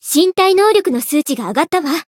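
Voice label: Voice growth, Japanese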